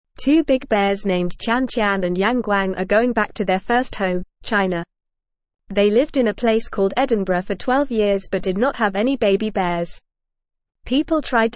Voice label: English Newsroom Beginner FAST Reading; Big Bears Tian Tian and Yang Guang Go Home; Two big bears named Tian Tian and Yang Guang are going back to their first home, China.

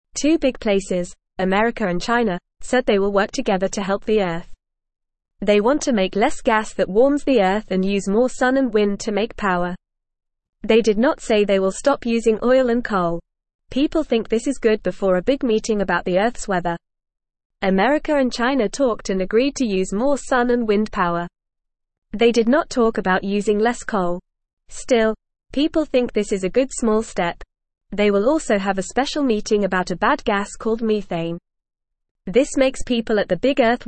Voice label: English Newsroom Beginner FAST Reading; America and China Join Forces to Help the Earth

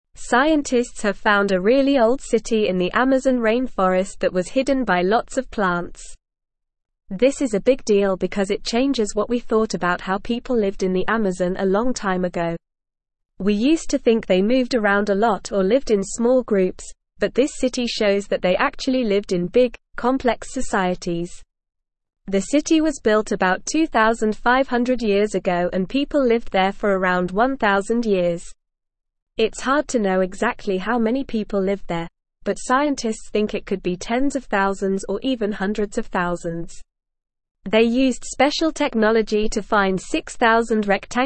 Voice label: English Upper Intermediate NORMAL Reading; English Newsroom; Ancient Amazon City Challenges Eurocentric View o

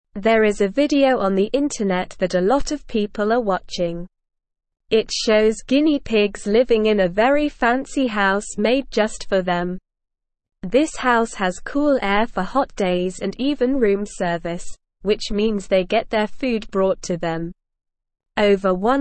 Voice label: English Beginner SLOW Reading; Guinea Pigs Live in Fancy House with Room Service

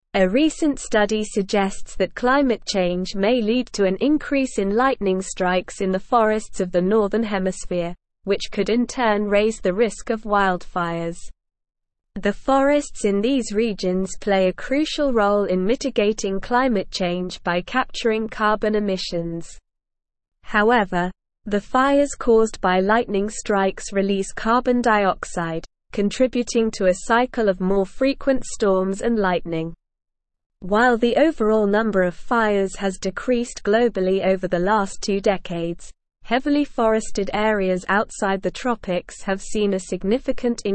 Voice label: English Newsroom Advanced SLOW Reading

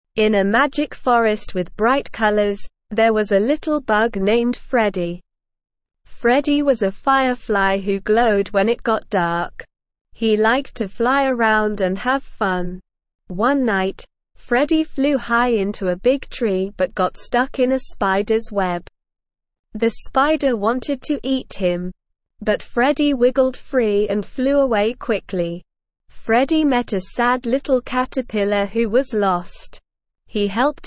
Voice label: ESL Short Stories for Kids Beginner SLOW Reading; The Adventures of Freddie Firefly

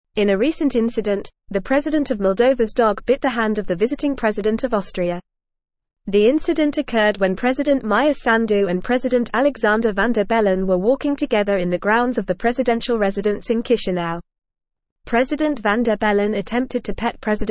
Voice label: English Newsroom Advanced FAST Reading; Moldovan Presidents Dog Bites Austrias President Van der Bell

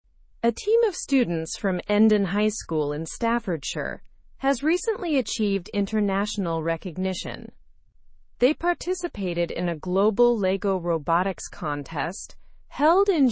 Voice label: learn english through news upper intermediate; endon high school students earn global recognition

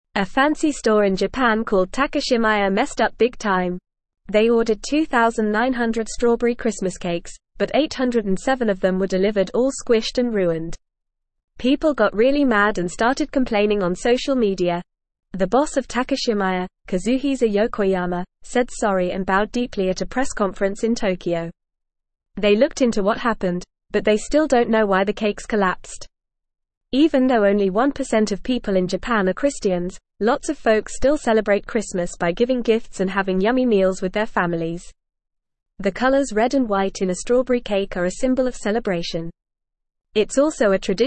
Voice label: English Upper Intermediate FAST Reading

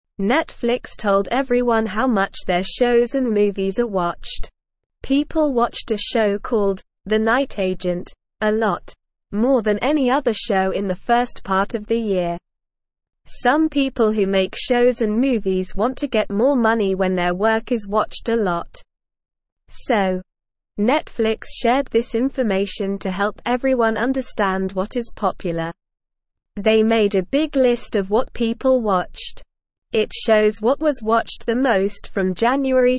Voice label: English SLOW Reading; Netflix Shows and Movies What People Like; English Newsroom

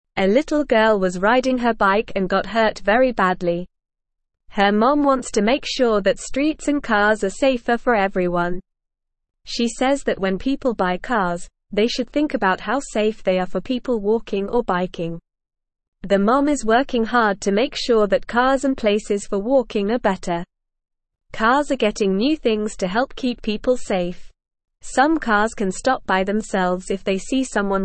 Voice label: English Newsroom Beginner NORMAL Reading; Mom Works to Make Streets Safer for Everyone

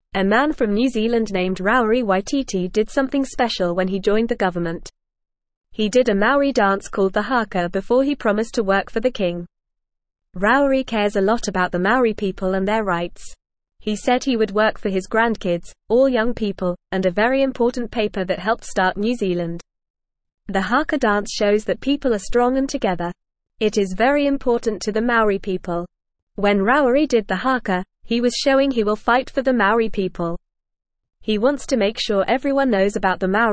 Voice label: English Newsroom Lower Intermediate FAST Reading; Man from New Zealand does special dance for Maori rights

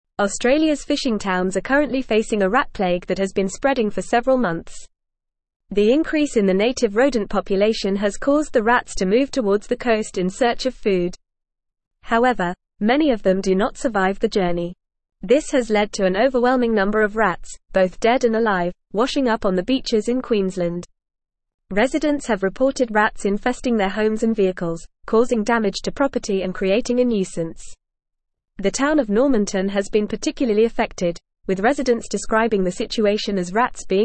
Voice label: English Advanced FAST Reading; English Newsroom; Rat and Mouse Plague Hits Queenslands Fishing Towns

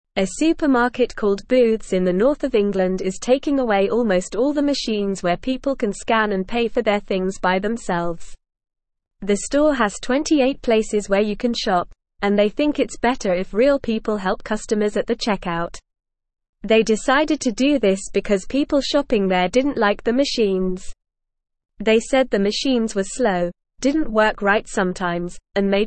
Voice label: English Lower Intermediate NORMAL Reading; Booths Store Removes Self Pay Machines Wants Happ; English Newsroom

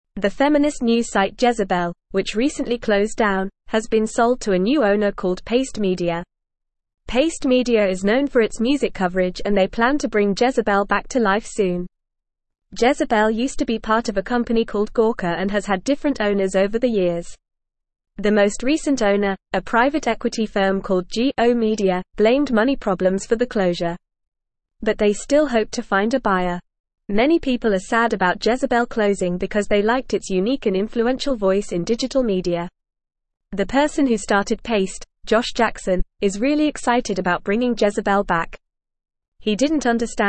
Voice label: English Newsroom Upper Intermediate FAST Reading; Jezebel Feminist News Site Sold to Paste Media

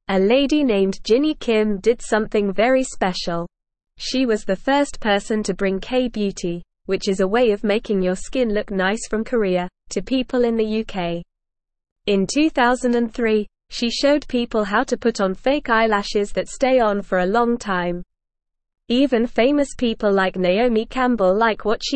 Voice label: English Beginner NORMAL Reading; English Newsroom

